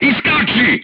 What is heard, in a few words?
voice message leave vehicle, crew tanks serbian